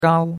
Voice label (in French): "gao1", chinois; Cours-de-Chinois